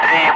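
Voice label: "5", spoken; Ka-50; Wingman; Digits; DCS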